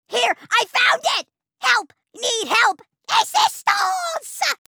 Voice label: Outtake Grunts The french grunt